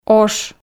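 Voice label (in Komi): "ош", Komi, female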